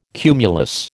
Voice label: "cumulus", American